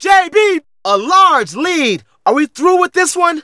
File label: Narration